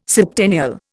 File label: "septennial", Indian